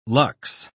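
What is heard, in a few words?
"luxe", British English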